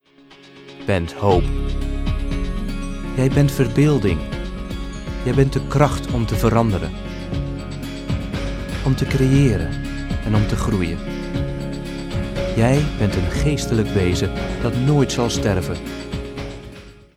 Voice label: Dutch Native